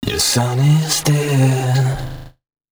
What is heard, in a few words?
male d#130, spoken hooks; Mutekki Media; Dance Ultra Voice VOL-1